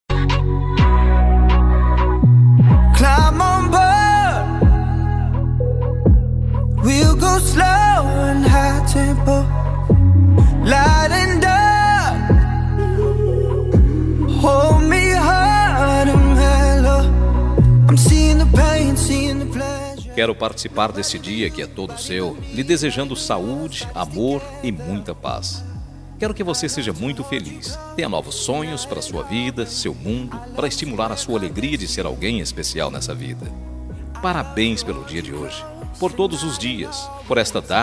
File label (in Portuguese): ANIV NEUTRO SING MASC, telemensagem aniversario neutro